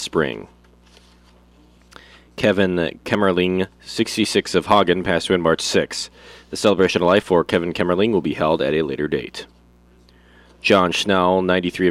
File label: Funeral Announcements